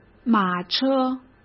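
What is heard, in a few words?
"马车", Mandarin Chinese; chinese-flashcards; hsk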